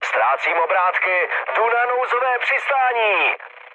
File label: damaged engine, speech cze, mood low; War Thunder